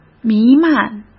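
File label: "弥漫", Mandarin Chinese; hsk; chinese-flashcards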